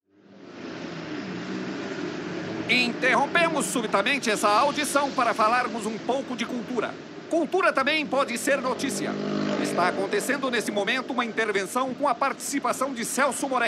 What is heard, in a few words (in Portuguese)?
vinheta; politica partidaria